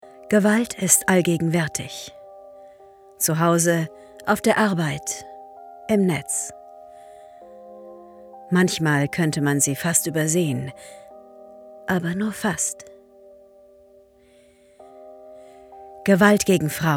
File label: PSA